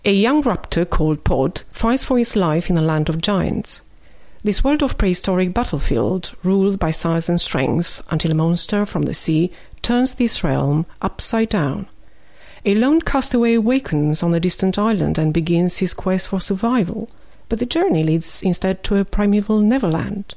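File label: Romanian English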